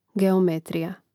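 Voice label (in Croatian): "geometrija", hrvatski; mreznik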